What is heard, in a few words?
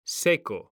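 "seco", Spanish, male; mekanta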